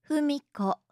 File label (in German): "fumiko", japanische maedchennamen